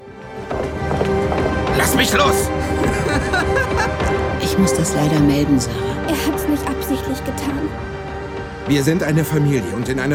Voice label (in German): Synchron, maenner; Königin